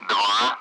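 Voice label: Speech Russian; Allied Flight; Digits; DCS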